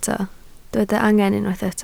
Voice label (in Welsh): "angen", Welsh